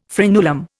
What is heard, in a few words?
"frenulum", Indian